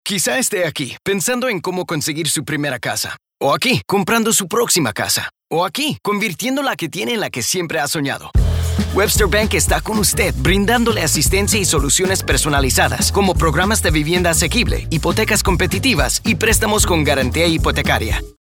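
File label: Spanish; Webster Bank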